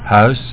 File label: house, Dutch; WordMatch